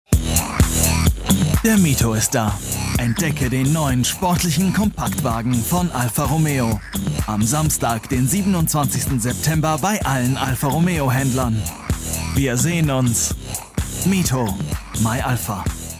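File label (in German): german male commercial; voice demos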